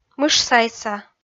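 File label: "мышсайса", Komi, female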